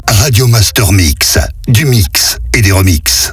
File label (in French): Mix et remix Medium, Voix off; Radio Master Mix; Habillage